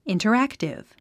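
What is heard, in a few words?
"interactive", English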